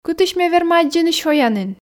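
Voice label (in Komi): кытысь ме верма аддзыны сёянін, Komi, female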